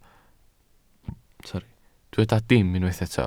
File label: "dim", Welsh